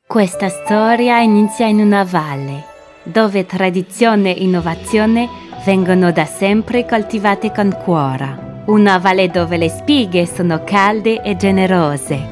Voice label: female french italian compilation; devine voices